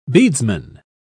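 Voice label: "bedesman", English, British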